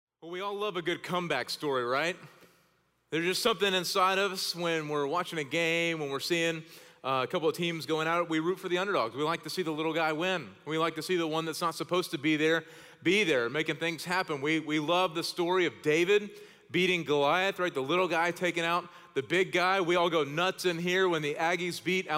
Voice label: Sermon English